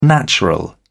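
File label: "natural", British English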